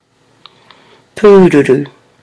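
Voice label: "pururu", Māori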